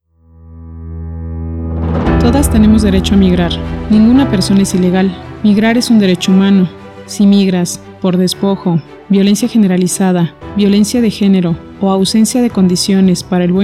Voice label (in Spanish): spot alerta humanitaria fondo musical libre